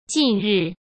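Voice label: "近日", Mandarin Chinese; chinese flashcards; hsk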